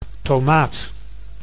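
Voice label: "tomaat", Dutch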